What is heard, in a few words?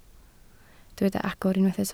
"agor", Welsh